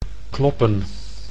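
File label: "kloppen", Dutch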